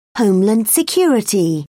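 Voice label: "homeland security", British English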